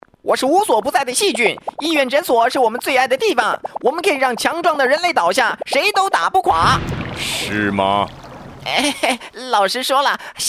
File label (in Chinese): 洗手戲劇-國語版